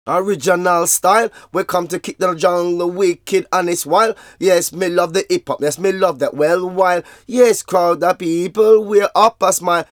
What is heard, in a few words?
Vocals; Jungle Frenzy 1